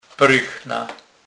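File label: "prychna", Polish, Kurpie dialect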